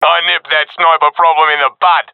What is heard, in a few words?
i killed sniper, professional epic; Counter Strike Model Pack; CS:GO